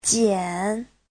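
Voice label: "jiǎn", Mandarin Chinese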